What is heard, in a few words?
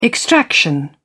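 "extraction", British English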